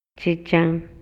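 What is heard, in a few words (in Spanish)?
Maya, Yucatán, male; RELACIONES ESPACIALES; INALI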